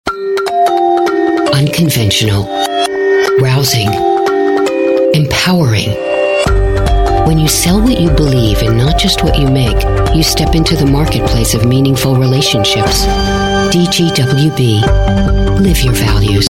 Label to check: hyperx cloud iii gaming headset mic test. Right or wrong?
Wrong